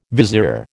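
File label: "vizier", American English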